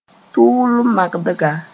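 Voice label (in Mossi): "tʋʋl-magdga", Mooré; thermometer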